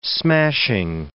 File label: "smashing", english